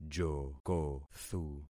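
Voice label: "jokothu", concatenated syllables; Affixing experiment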